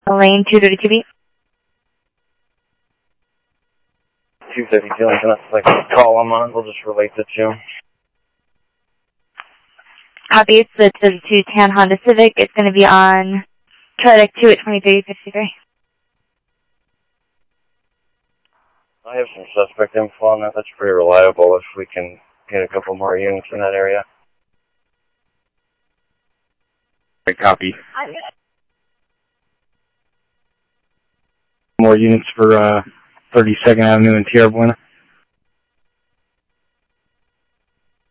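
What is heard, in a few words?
Phoenix police department, scanner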